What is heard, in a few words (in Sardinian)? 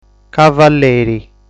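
"Cavalleri", Sardinian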